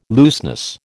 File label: "looseness", American